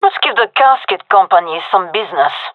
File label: round start, gendarmerie fem; vo